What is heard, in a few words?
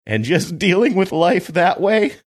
saying and just dealing with life that way desperate